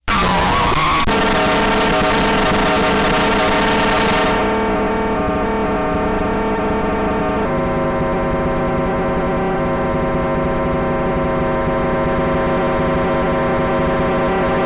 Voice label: voice xformer